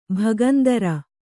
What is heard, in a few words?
"ಭಗಂದರ", Kannada